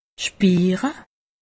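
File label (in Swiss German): "spiire", Alsatian; medecine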